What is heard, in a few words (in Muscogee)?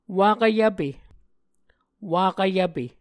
"wakv yvpe", Muscogee